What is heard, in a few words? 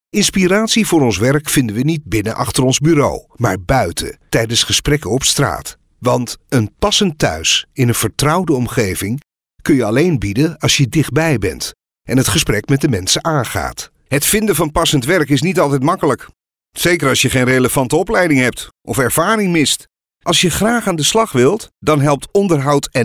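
Dutch, male, friendly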